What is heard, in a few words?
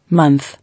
"Month", English